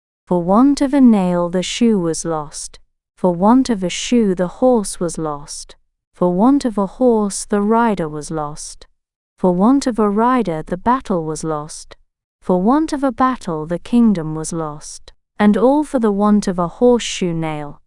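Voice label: female British; For Want of a Nail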